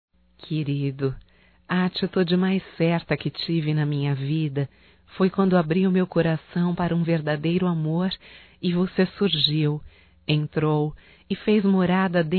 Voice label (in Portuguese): ROMANTICA(F), Românticas, fem